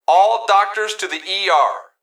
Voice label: Emergency Human Male Voice Hospital Call All Doctors To The ER; emergency effects